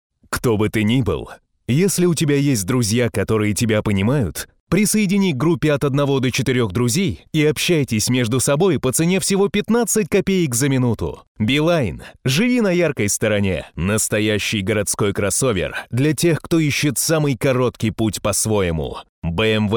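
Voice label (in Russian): advert, Женщина, Среднего возраста, Русский, Бархатный, Средний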